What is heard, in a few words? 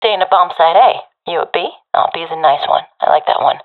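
guarding bombsite a, professional female; vo; agents; Source Model Packs; Counter Strike Model Pack; CS2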